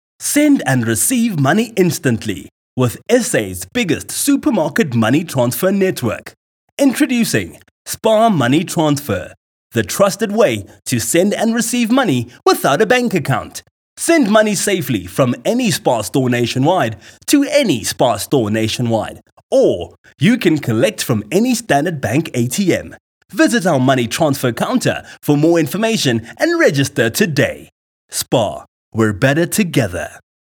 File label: Soft Sell; SPAR